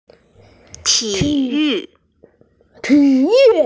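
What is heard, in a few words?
"ti3yu4", Mandarin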